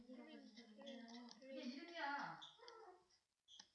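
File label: "lead", spoken word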